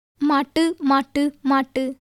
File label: Tamil